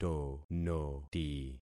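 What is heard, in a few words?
"tonoti", concatenated syllables; Affixing experiment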